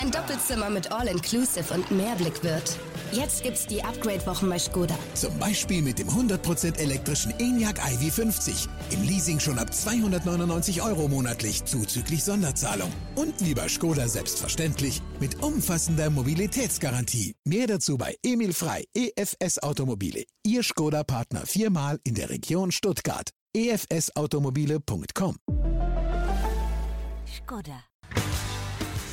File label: Pre Stream Ad